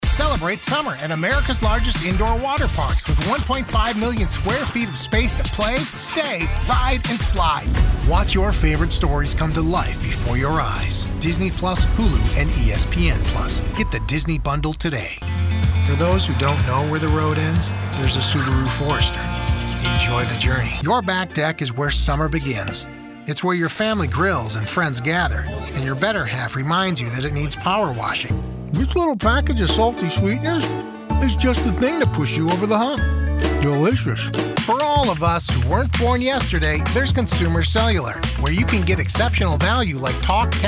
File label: Commercial